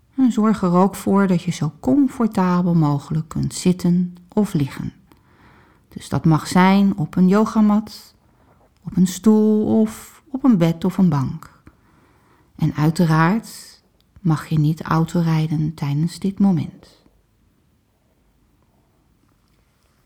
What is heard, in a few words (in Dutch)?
meditatie; help hoe begin ik met mediteren